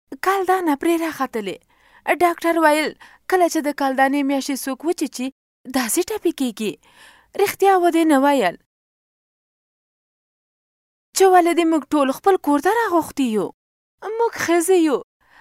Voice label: FEMALE PASHTO